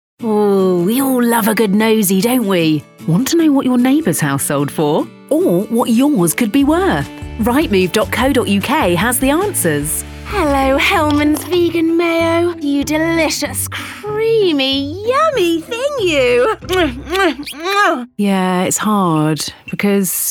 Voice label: Commercial